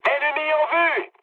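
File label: enemy detected, mood high, French; War Thunder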